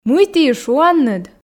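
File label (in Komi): мый ті и шуанныд, Komi, female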